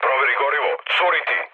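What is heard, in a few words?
Serbian tank damaged mood low; War Thunder; speech srb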